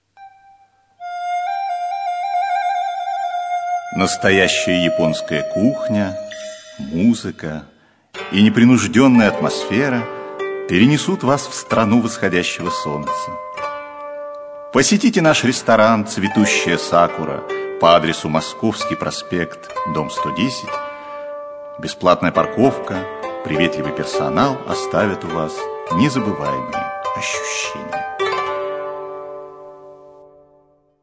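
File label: Russian, male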